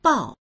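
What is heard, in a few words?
"bao4", Chinese; 抱; 抱く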